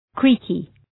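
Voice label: "creaky", English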